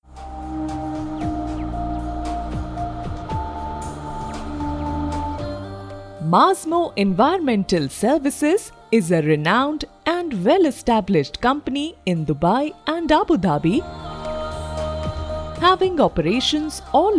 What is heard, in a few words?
IVR with music; Mazmo